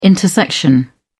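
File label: "intersection", British English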